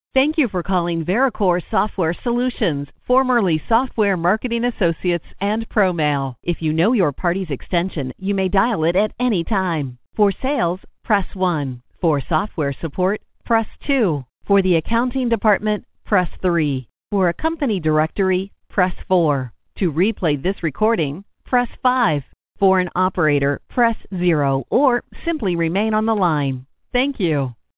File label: Daytime Message; VeraCore Software